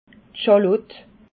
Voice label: "schalotte", alsace

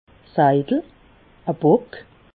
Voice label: "seidel", alsace

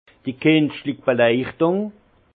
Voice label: "kinschlich", alsace; vin; éclairage artificiel

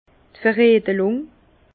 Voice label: "veredlung", Bas Rhin; vin; affinement

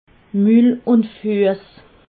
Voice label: "mulunfuess", alsace

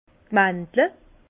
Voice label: "mandle", Bas Rhin; vin; amande

